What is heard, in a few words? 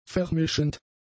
"vermischend", German; adjectives